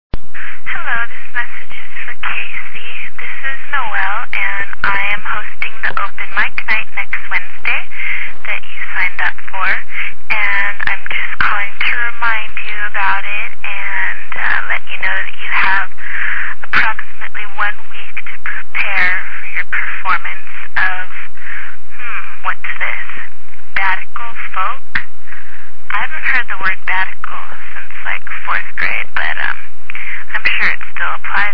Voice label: phonecall